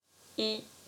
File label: "i", french